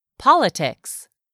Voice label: "POLITICS", English; 3000 words